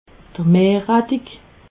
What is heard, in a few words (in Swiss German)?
"meerrettig", alsace